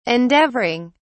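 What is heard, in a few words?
"endeavouring", British English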